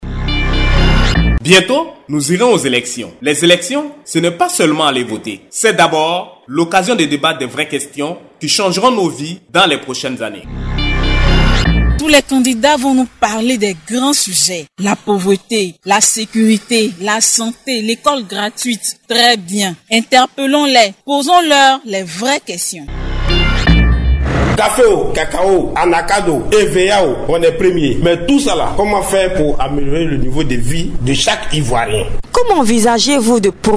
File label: Spot RADIO; diffuse en periode electorale en CI